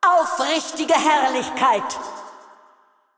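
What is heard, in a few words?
German, assist; VO; de DE; Kayle; bloom minigame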